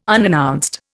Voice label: "unannounced", Indian